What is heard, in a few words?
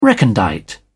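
"recondite", British English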